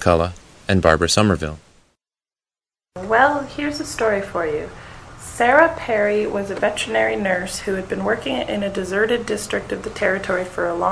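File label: ontario, International Dialects of English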